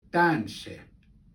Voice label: Michif Welcome